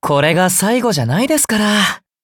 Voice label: defeat, ja; cookie run